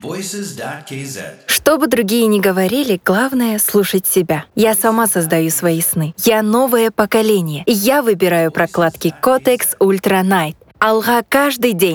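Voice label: Russian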